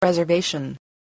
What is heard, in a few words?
"reservation", English